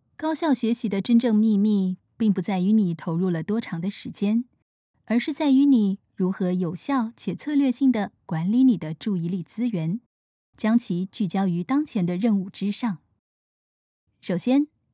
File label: foreigner; 落ち着いた（台湾語）; 中国語